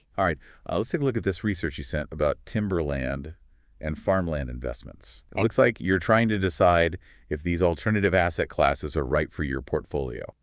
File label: notebooklm voice; google